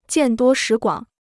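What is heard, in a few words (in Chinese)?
"见多识广" Mandarin Chinese; jiàn duō shí guǎng; experienced and knowledgeable (idiom)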